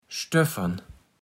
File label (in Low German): "stöffern", Platt